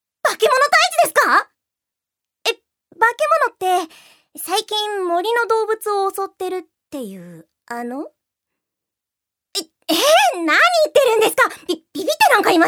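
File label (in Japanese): セリフ, 女性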